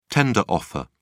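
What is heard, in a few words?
"tender offer", British English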